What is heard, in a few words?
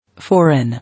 "Foreign", English